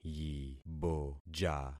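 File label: "yiboja", concatenated syllables; Affixing experiment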